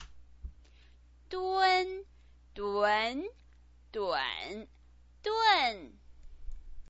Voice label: "dun", Chinese pinyin